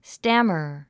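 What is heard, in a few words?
"stammer", English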